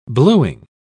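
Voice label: "bluing", British English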